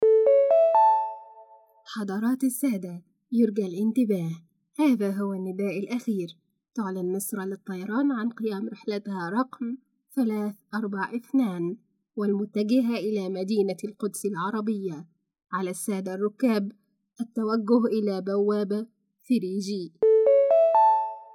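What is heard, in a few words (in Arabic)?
الرد الآلي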